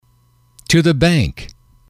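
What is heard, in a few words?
to the bank, male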